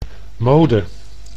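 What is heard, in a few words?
"mode", Dutch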